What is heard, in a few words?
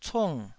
"cung4", taiwanese